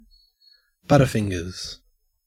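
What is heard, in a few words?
"butterfingers", Australian English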